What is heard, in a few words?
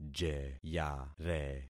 "jeyare", concat syllables; Affixing experiment